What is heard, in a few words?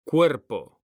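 "CUERPO", Spanish, male; mekanta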